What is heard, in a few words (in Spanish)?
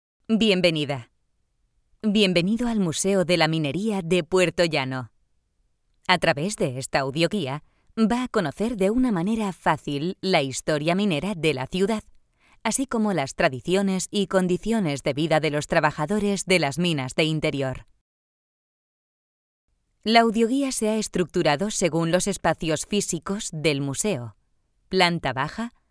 audioguia; audioguia museo de la mineria; esp